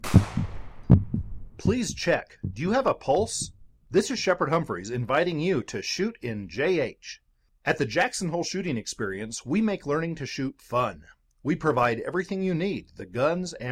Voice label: radio spot; JH Shooting